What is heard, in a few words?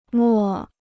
"末", Chinese